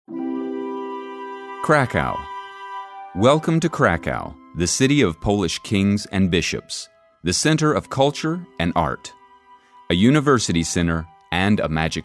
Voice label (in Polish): Lektor ENG US narracja; Studio Nonagram